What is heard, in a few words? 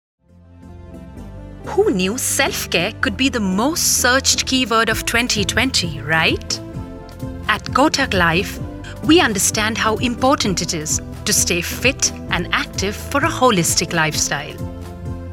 TVC or Corporate Film English